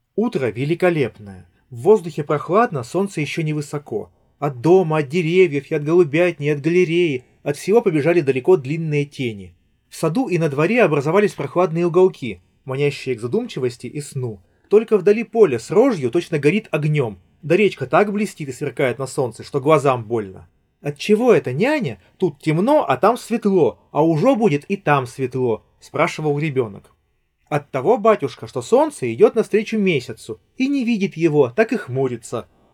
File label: Recorder; earfun clip 2